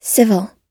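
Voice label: "civil", US English word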